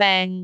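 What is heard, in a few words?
"beng3", Cantonese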